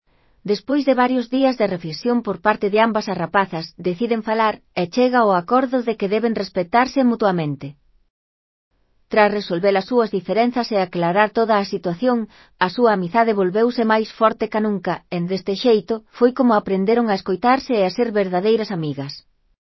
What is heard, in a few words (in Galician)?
NARRACION; Os textos narrativos